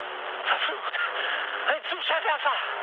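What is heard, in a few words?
German searchlite mood high, speech; War Thunder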